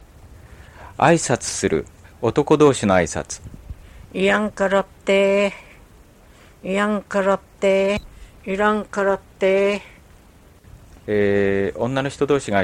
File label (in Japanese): あいさつする, Ainu; mukawa